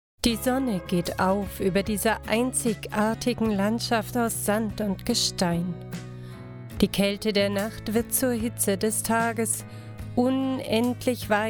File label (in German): Doku Wueste